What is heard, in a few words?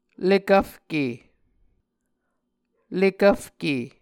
"lekvfke", Muscogee